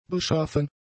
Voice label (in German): "beschaffen", German; adjectives